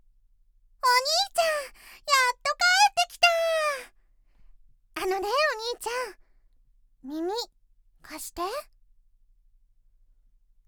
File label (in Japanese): 少女 プリン欲しい